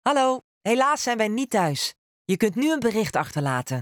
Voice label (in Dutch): Ring Nederlandse snelle antwoorden